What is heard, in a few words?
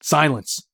silence; Escape from Tarkov